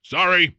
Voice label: punk sorry, vox; Dystopia; vox new